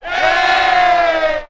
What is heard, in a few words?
"yeah", group of men